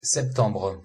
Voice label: pronunciation fr "septembre"